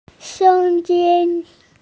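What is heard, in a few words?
"sound", female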